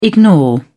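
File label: "ignore", English, British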